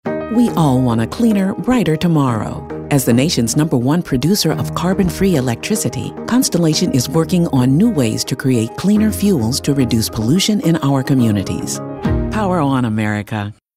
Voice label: Energy, friendly

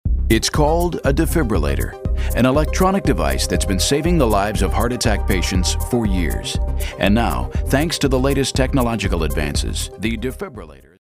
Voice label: authoritative

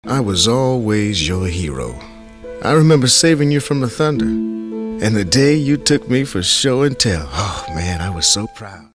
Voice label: warm and fuzzy

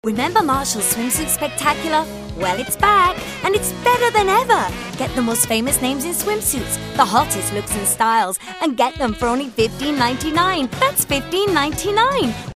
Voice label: upbeat

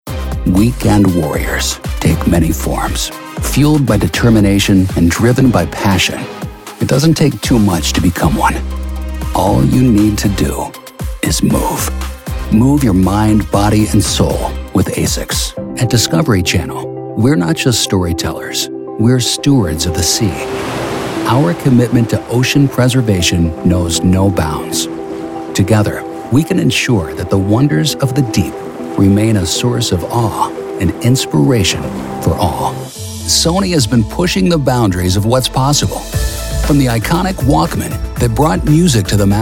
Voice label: Corporate Narration

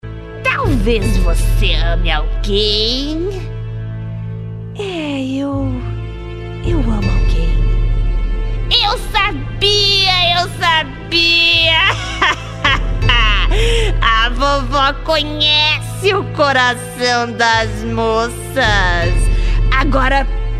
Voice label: Audio Book; Snow White

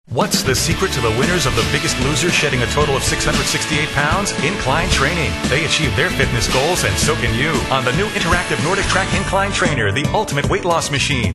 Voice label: friendly genuine

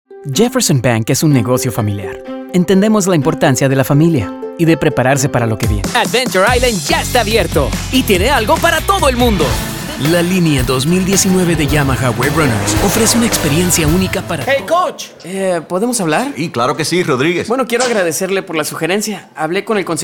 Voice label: Commercial ESP

